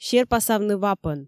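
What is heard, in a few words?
"серпасавны вапӧн", Komi, female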